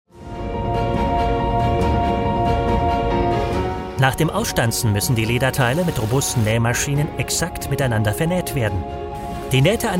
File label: explainer; LOWA